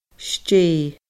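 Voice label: "stéidh", Scottish Gaelic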